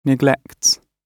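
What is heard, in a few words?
"neglects", British English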